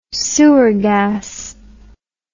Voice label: "sewer gas", English